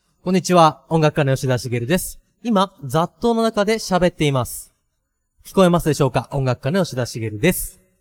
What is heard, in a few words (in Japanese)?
ノイズテスト voice; split by lalalai